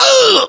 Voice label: pain, voiceovers english